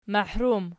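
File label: "mahrum", nominative, Archi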